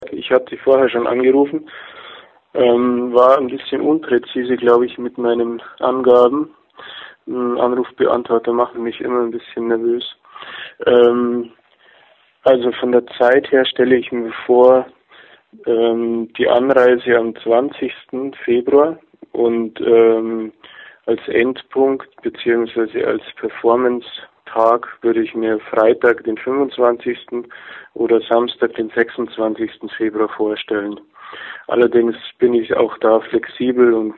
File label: Voicemail